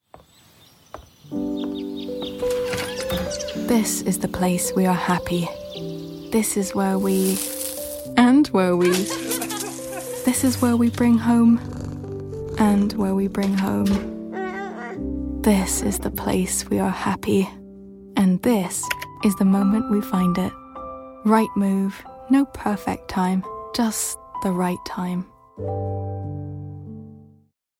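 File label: uk, English - England; rightmove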